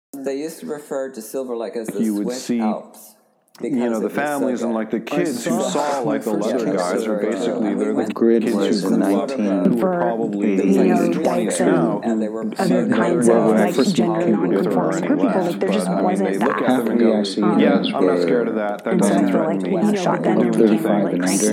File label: Voice Over